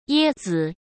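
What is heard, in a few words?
"椰子", Mandarin Chinese; hsk; chinese-flashcards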